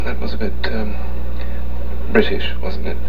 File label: british